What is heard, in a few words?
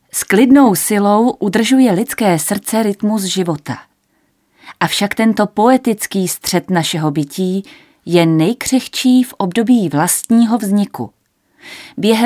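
Female Czech documentary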